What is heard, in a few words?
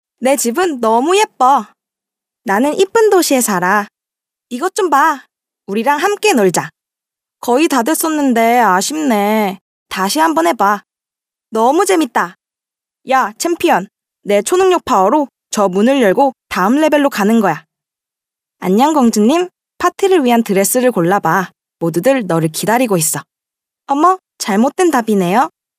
Female Korean Toys